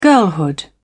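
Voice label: "girlhood", English, British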